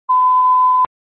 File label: Fire Department Dispatch